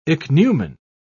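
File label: "ichneumon", British English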